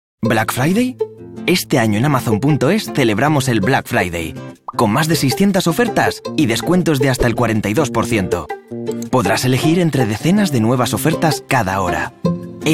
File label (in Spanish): MALE Spanish Spot Amable; AMAZON